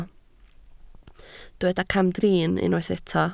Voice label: "camdrin", Welsh

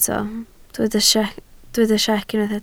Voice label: "siec", Welsh

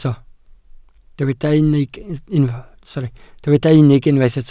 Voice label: "unig", welsh

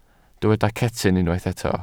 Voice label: "cetyn", Welsh

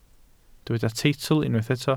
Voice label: "teitl", Welsh

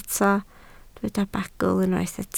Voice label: "bagl", Welsh

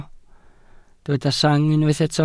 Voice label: "sang", Welsh

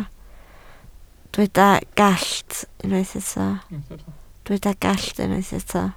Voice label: "gallt", Welsh

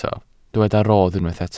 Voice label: "rodd", Welsh

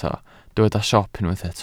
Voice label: "siop", Welsh

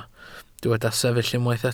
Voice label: "sefyll", welsh

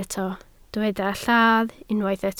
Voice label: "lladd", Welsh

